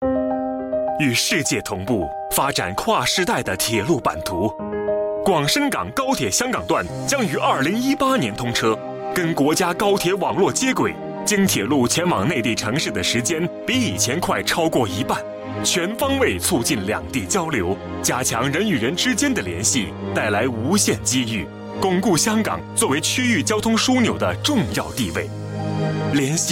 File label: Radio Mand